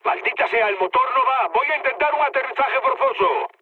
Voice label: damaged engine, mood med, speech; War Thunder